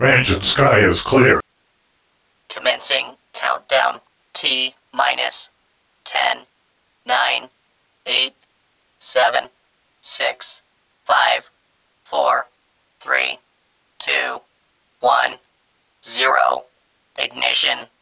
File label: Launch Countdown 8bit mono